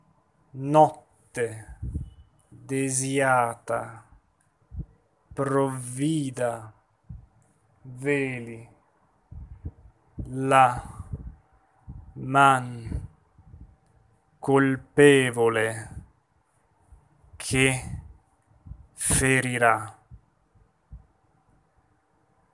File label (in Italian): monotono; Macbeth